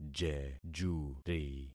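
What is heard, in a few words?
"jejuri", concatenated syllables; Affixing experiment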